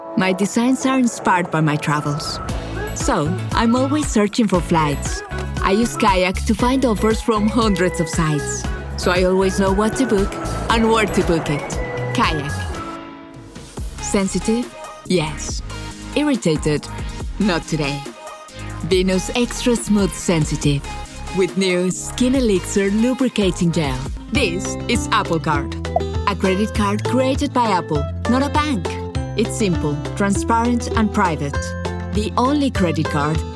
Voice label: Commercial